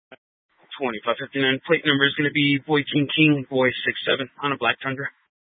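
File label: sheriff dispatch radio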